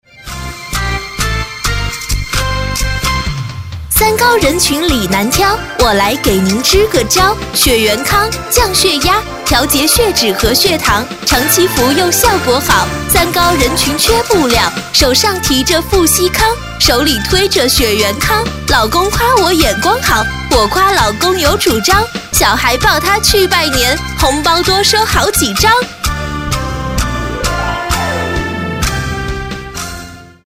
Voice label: 女 特色快板 降血脂广告, 国语女声配音

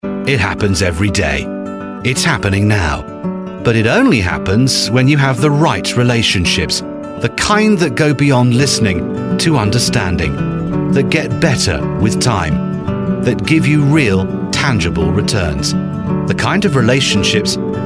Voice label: 外籍-金融行业宣传片-亲切

